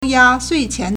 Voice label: "ya1sui4qian2", Chinese

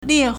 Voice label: "lie4", Chinese

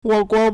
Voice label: "wo3guo2", Chinese

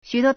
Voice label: "xu3duo1", Chinese

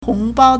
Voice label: "hong2 bao1", Chinese

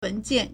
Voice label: "wen2jian4", Chinese

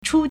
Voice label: "chū", Chinese

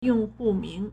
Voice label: "yong4hu4ming2", Chinese

